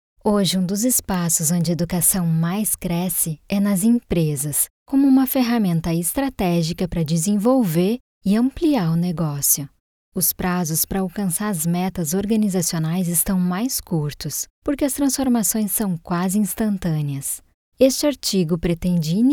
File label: E-learning